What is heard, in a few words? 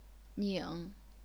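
"ning", third tone, chinese pinyin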